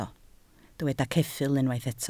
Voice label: "ceffyl", Welsh